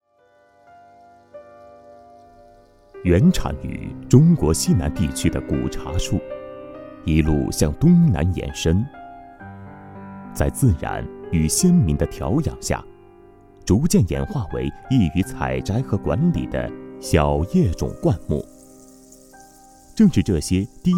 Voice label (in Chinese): 男 纪录片 茶 舒缓